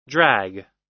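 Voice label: "drag", US English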